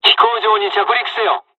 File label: fly, dialogs japanese; War Thunder